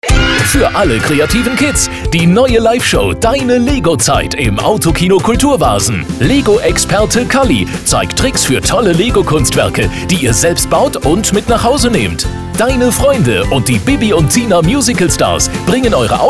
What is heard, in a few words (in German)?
Werbung Kinder